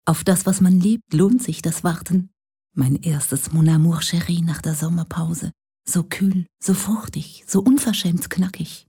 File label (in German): Spot; Mon Cheri 2008; Mon Chérie; deutsch